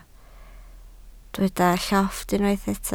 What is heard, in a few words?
"llofft", Welsh